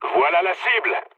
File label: near waypoint mood low, speech fr; War Thunder